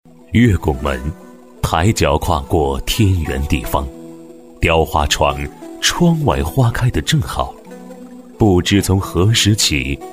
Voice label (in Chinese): 男 纪录片 舒缓悠扬; 月拱门; 男88-纪录片《月拱门》-舒缓悠扬